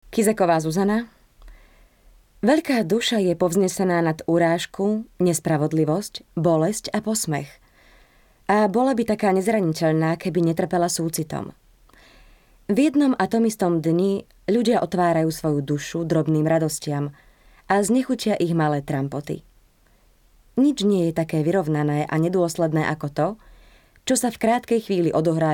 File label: slovak f narration; voice demos